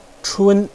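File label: "chun1", Mandarin Chinese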